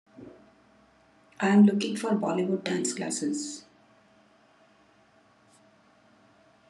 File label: voice message